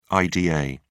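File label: "ida", British English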